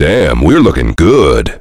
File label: heavy good job; tf; duke